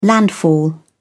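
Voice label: "landfall", British English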